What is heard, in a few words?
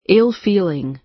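"ill feeling", American English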